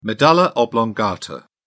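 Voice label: "medulla oblongata", English, British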